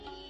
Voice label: Dari